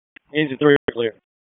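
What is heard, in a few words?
FIRE Operations, p25; FIRE OPS 3; wake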